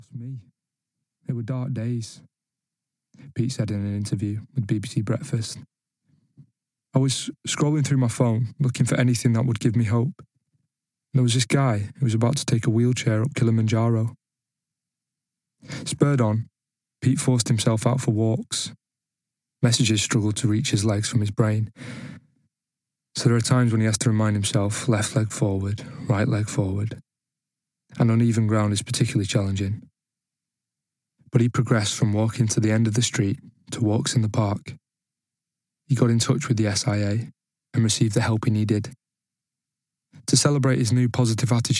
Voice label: Audiobook